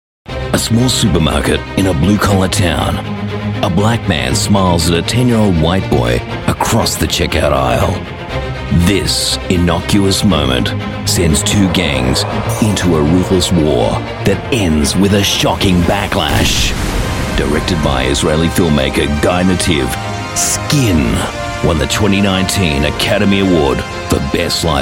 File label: English Australian movie trailers